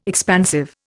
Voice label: "expansive", Indian